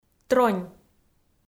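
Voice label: "тронь", Komi, female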